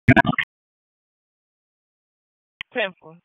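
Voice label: Police Southwest District Dispatch; Raleigh; p25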